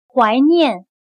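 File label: "怀念", Chinese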